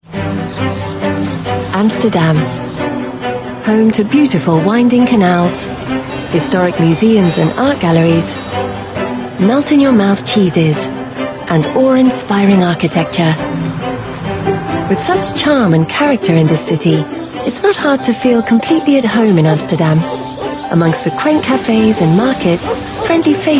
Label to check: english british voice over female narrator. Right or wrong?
Right